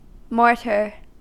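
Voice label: "mortar", US English; pronunciations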